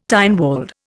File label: "Tynwald", Indian